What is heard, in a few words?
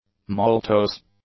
"maltose", English; nouns